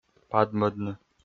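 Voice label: "падмӧдны", Komi, male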